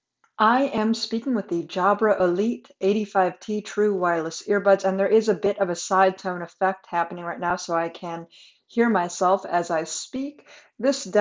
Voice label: microphone; Jabra Elite 85t

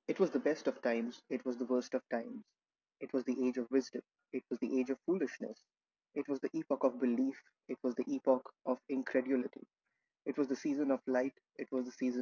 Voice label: Microphone Test; Amazfit Powerbuds

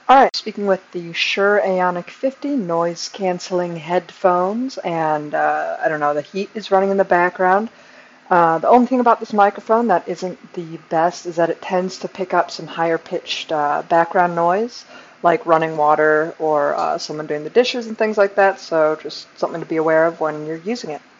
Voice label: Shure Aonic 50 microphone

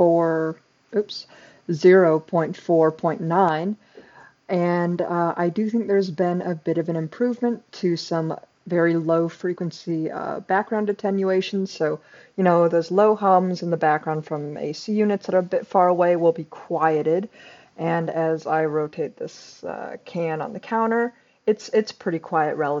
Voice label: Shure AONIC 50 microphone demo

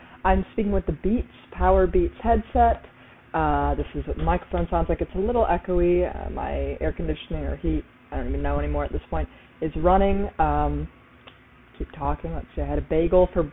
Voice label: Beats Powerbeats microphone

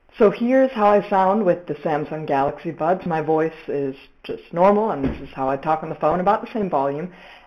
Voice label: Samsung Galaxy Buds microphone audio

